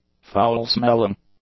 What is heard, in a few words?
"foul-smelling", English; adjectives